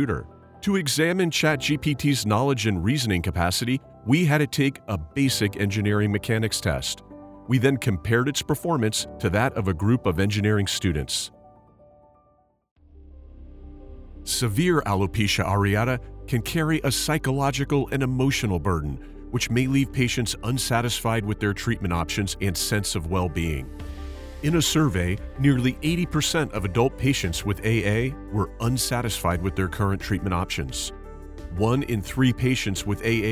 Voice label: E-learning

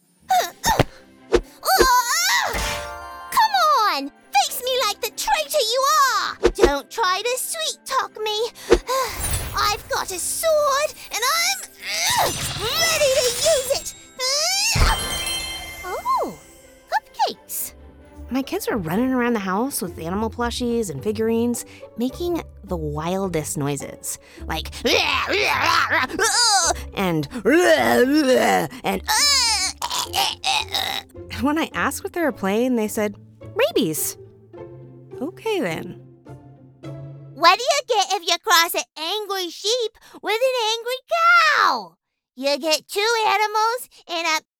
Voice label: Character Animation

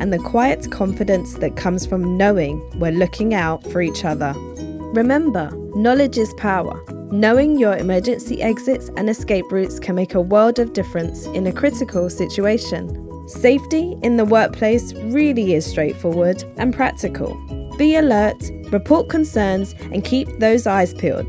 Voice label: Explainer Video

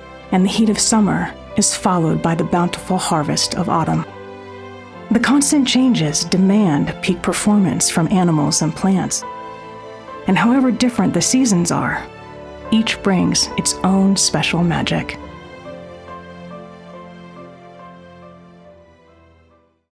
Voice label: Nature Doc

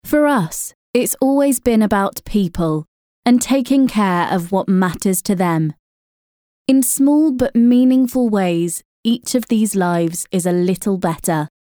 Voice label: Corporate

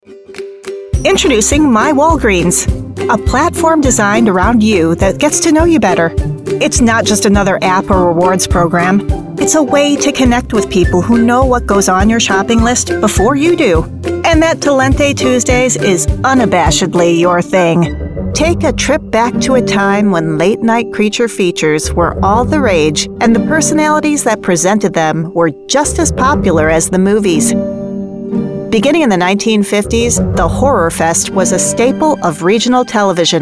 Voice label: Narration

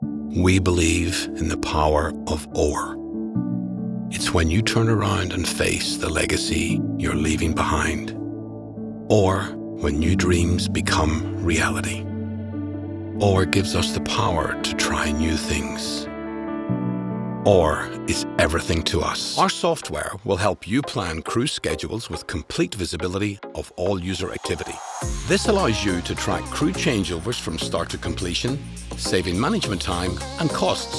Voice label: Business Reel

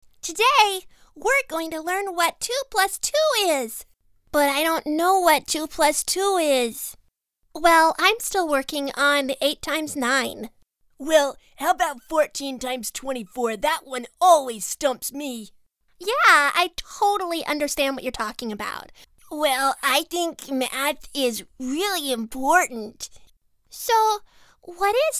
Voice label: Child Voices

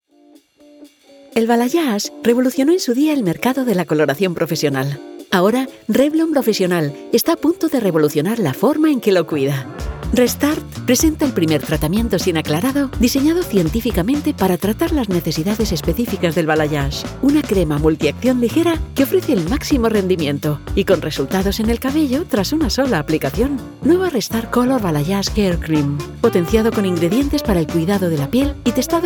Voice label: DINAMIC and ENGAGING VOICE; REVLON

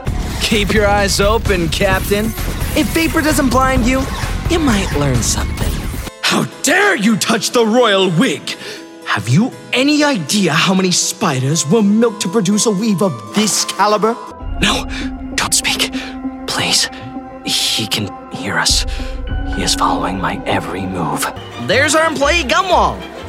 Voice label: ANIMATION

